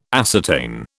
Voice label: "ascertain", British

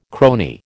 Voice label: "crony", American

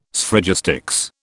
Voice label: "Sphragistics", British

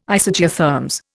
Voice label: "Isogeotherms", Indian

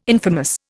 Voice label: "infamous", Indian

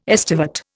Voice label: "estivate", Indian

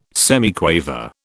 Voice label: "semiquaver", British

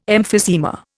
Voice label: "emphysema", Indian

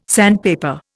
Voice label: "sandpaper", Indian

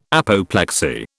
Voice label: "apoplexy", British

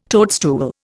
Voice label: "toadstool", Indian

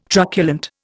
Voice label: "truculent", Indian

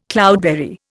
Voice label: "cloudberry", Indian

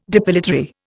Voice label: "depilatory", Indian

